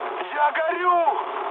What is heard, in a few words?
on fire, mood med, speech ru; War Thunder